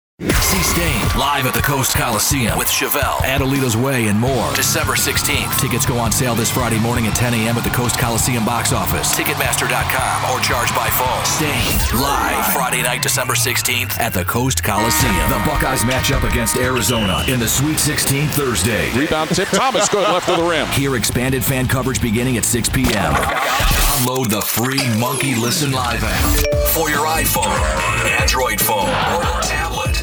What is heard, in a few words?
Imaging